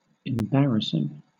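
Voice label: "embarrassing", British English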